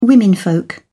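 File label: "womenfolk", British English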